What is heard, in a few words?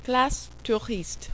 "classe touriste", French